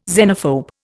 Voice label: "xenophobe", Indian